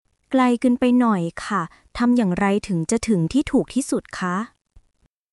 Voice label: Thai, basic thai phrases

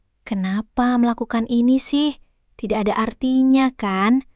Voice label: indonesian phrases

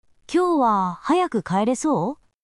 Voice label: japan

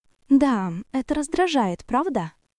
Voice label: Russian, russian phrases insults; ロシア語のネガティブなフレーズ

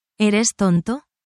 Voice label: spanish, spanish phrases negative language

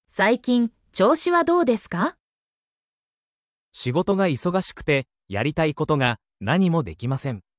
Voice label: anime, Natural Japanese Conversations; "How have you been?" in Japanese

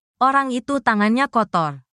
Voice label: indonesia, indonesian negative phrases

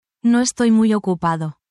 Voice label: spanish, spanish phrases

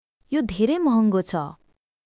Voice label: Nepali, basic phrases; ネパール人技能実習生や留学生の皆さんと話すとき、「どうやって自分の気持ちを伝えたらいいんだろう？」と悩むことはありませんか？好き嫌いを伝えたり、何か必要なものを尋ねたりする場面では

